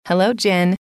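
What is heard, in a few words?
hello, female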